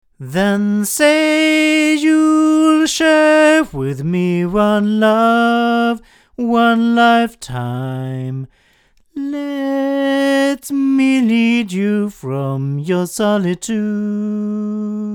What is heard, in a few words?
A51TL hypercardioid male; ADK